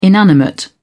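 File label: "inanimate", British English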